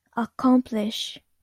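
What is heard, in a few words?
"accomplish", Japanese speaker of English, female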